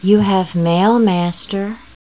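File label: Female voice, "You have mail, Master."; replacement event sounds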